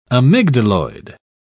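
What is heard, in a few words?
"amygdaloid", British English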